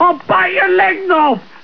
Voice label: male taunt; starsiege